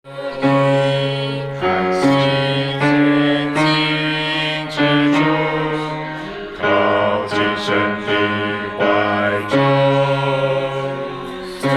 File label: bass, poetry